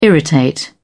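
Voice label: "irritate", British English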